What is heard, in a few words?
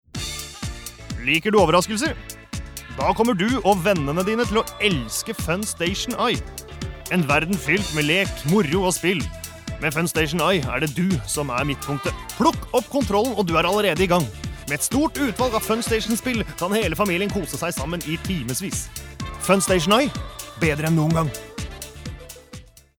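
no cheerful, Norwegian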